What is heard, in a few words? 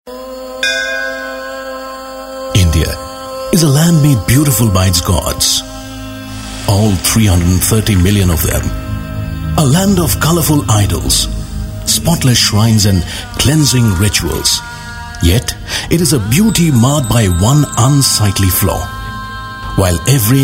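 English voice over Sample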